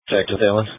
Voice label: Sheriff Dispatch